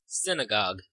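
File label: "synagogue", American English; pronunciation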